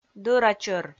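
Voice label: "дӧра чӧр", Komi, female; kpv audio dict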